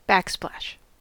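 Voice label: "backsplash" US English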